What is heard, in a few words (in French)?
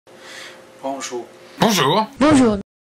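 "bonjour", français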